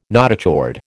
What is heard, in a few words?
"notochord", American